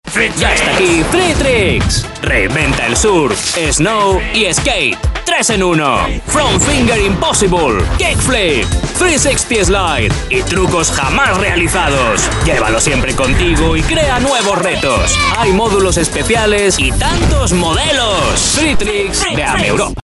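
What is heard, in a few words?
Spanish male TV commercial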